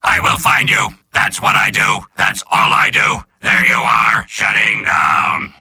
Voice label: soldier robot; mvm